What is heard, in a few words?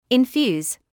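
"infuse" English, British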